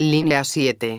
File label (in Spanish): linea 1, megafonias; exterior